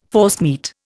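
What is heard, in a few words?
"forcemeat", Indian English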